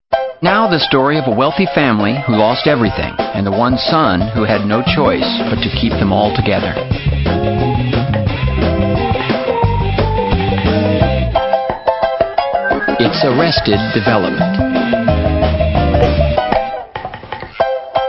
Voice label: with narration; Arrested Development